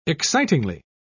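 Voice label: "excitingly", British English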